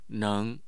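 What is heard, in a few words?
"neng2", Mandarin Chinese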